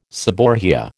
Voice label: "seborrhoea", American